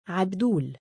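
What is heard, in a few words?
"3abduul" male, Arabic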